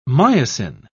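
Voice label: "myosin", British English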